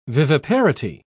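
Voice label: "viviparity", British English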